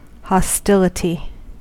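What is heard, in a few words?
"hostility", US English; pronunciations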